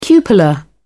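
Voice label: "cupola", British English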